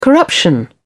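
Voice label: "corruption", British English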